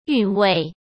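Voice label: "韵味", Mandarin Chinese; chinese-flashcards; hsk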